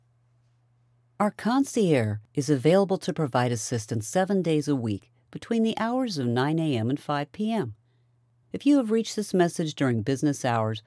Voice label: Winery Phone Tree